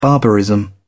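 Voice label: "barbarism", British English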